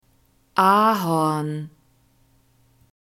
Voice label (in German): "ahorn", German; nouns gender feminine